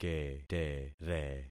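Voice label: "ketere", concatenated syllables; Affixing experiment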